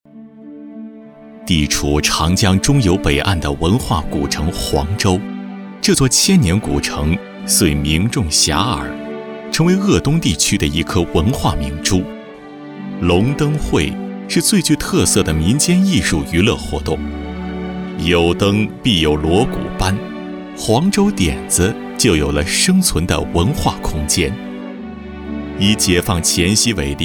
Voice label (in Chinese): 男 纪录片